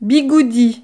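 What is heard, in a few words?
"bigoudi", French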